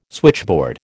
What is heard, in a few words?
"switchboard", American